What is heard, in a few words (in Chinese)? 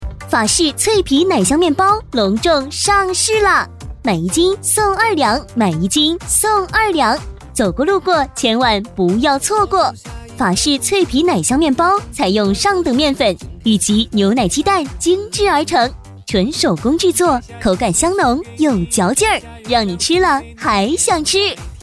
甜美; 促销; 脆皮奶香面包食品; 女